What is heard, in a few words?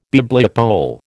"Bibliopole", American